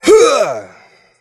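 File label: "huh", vocal sample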